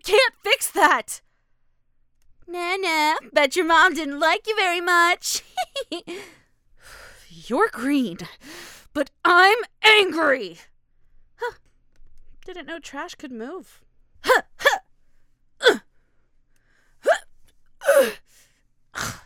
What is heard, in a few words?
Recruit Female